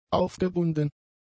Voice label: "aufgebunden", German; adjectives

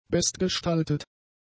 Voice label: "bestgestaltet", German; adjectives

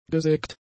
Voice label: "gesägt", German; adjectives

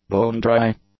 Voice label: "bone-dry", English; adjectives

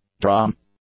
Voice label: "drum", English; nouns

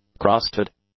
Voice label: "crusted", English; adjectives

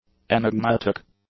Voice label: "enigmatic", English; adjectives

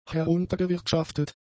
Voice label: "heruntergewirtschaftet", German; adjectives

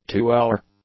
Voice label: "two-hour", English; adjectives

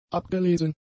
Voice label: "abgelesen", German; adjectives

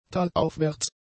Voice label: "talaufwärts", German; adjectives

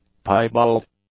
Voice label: "piebald", english; adjectives

